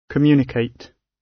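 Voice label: "communicate", British; Language, linguistics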